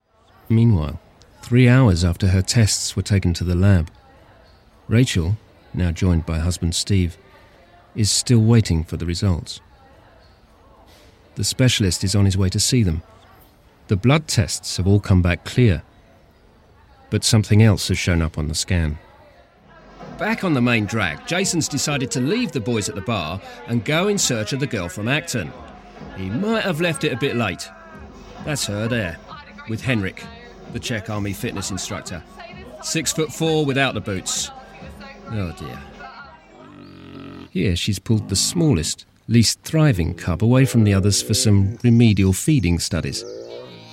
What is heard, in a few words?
Narration